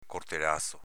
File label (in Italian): "cortelazo", dialetto veneto